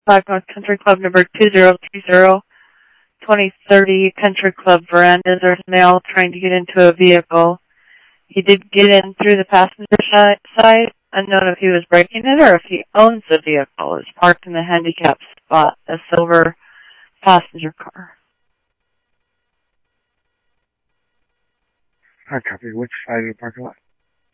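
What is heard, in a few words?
Mesa Simulcast Police Department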